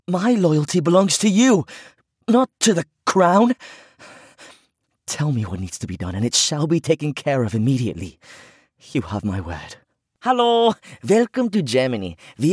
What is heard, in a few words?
Accent Sample